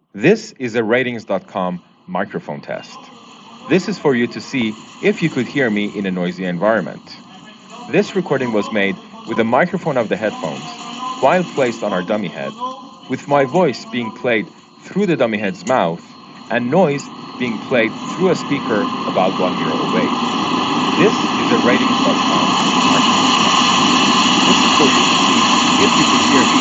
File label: phone subway